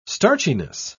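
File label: "starchiness", British English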